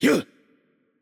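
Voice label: Japanese, attack light; ja JP; VO; bloom minigame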